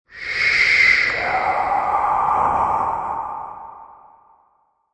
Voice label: Creep, Creepy male whispering voice with wind quality and some reverb processing; Evil; Horror